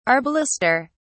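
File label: "arbalester", British English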